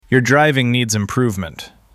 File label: Male Failed